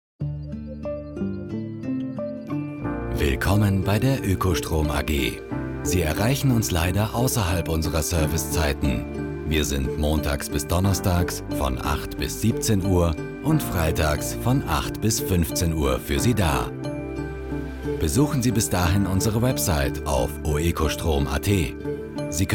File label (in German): Messe Telefonschleife entspannt; Oekostrom